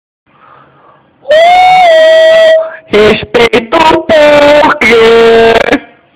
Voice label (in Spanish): nota de voz